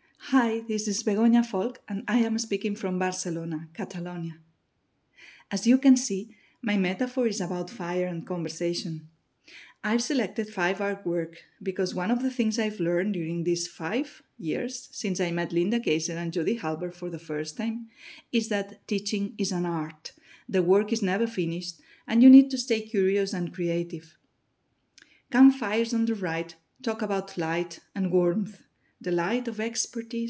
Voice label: Voice Over; ICSEI22; NOIIE